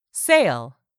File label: "SAIL", english; 3000 words